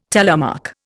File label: "telemark", Indian